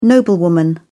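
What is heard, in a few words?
"noblewoman", British English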